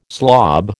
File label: "slob", American